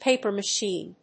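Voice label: "Paper machine", English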